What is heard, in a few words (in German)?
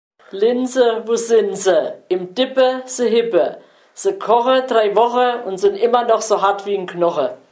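kinderreim, Hunsrück; Linse wo sin se; Unzenberg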